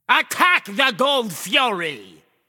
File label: vox attack; smite; xingtian